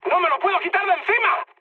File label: aircraft damaged, mood high, speech; War Thunder